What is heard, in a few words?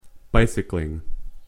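"bicycling", English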